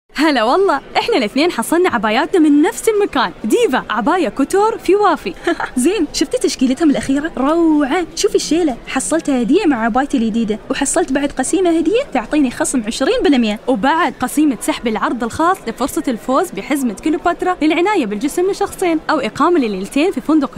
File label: Arabic Emirati Radio TV Commercial Voice